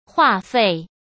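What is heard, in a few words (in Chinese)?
"话费", Mandarin Chinese; chinese flashcards; hsk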